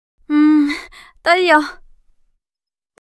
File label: fluster, monologue